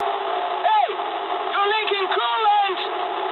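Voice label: damaged water mood medium, speech English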